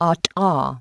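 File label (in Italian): Eiettive Dentale/alveolare, consonanti non polmonari; IPA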